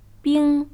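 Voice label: "bing1", Chinese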